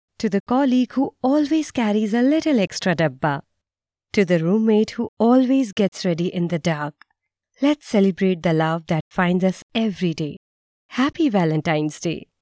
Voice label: English advertisement